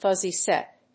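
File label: "fuzzy set", English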